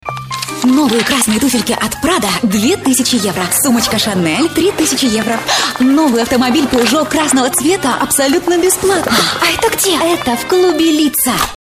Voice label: ukrainian f commercial